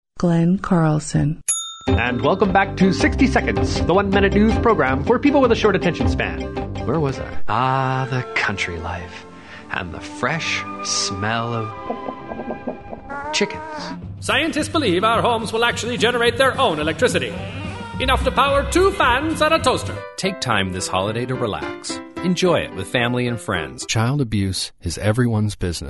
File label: male voice